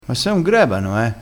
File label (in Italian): "grebano", crespinese